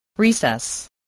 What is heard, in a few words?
"recess", english